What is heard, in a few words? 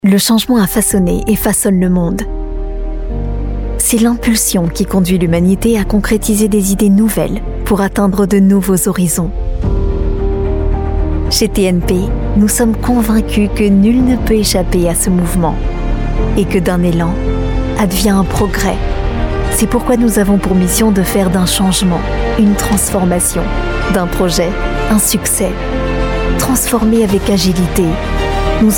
french voice over female narrator